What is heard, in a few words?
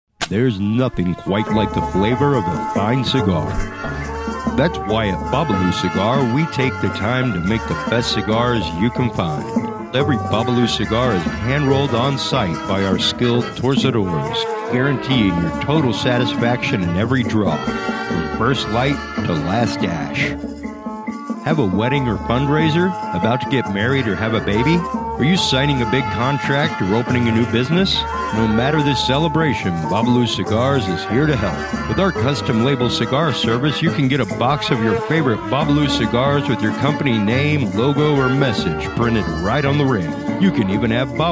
commercial; BOBALU